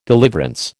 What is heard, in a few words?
"deliverance", American